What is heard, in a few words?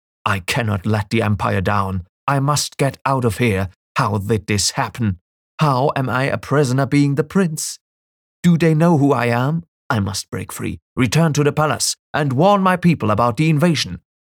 english video games